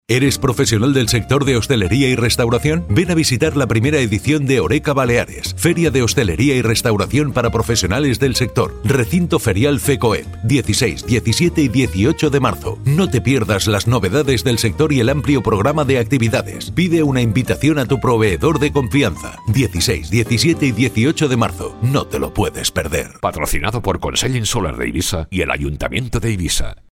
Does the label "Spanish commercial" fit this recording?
Yes